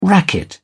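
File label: "racket", British English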